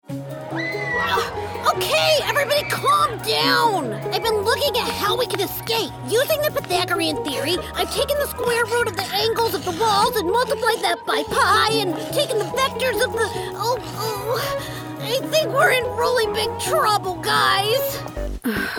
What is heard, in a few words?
female English USA multiple accents animation compilation